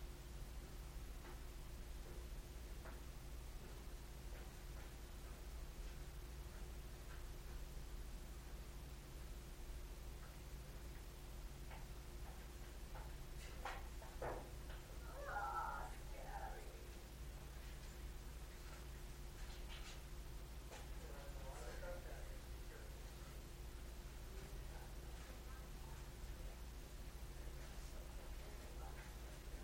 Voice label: Tudor house basement disembodied voice, lockdown event; disembodied voice @ 15 seconds; bracken fern manor